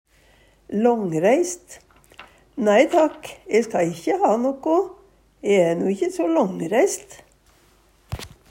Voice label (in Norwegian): "långreist", Numedalsmål; Nei takk, e ska ikkje ha noko, e æ no ikkje so långreist.